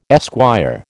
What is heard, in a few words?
"esquire", American